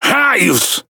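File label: "Caramba", Brazilian Portuguese; speech; quick commands; World Of Warships; PT BR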